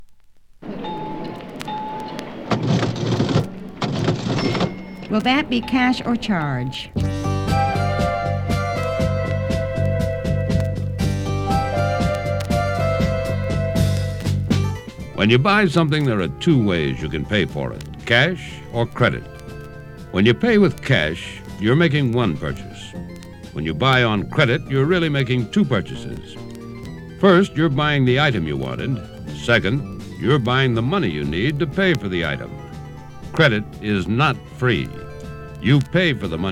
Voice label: Announcer